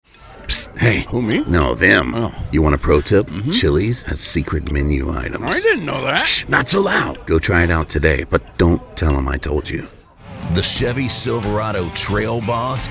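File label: Commercial, male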